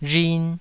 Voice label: "rhin2", taiwanese

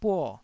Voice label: "buo4", taiwanese

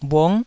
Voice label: "bhung9", taiwanese syllables

